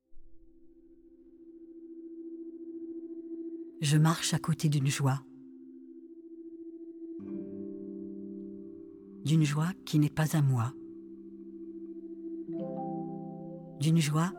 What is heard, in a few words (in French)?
narration court-metrage